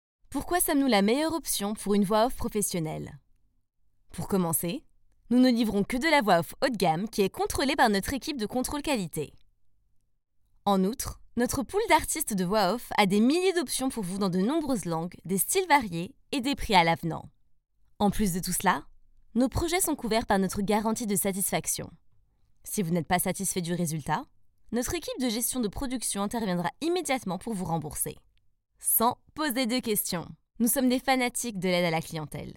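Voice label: Home Studio Sample French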